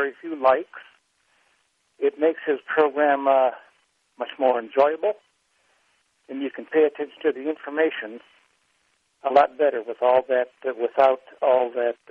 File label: comment line stammering and stuttering; kgnu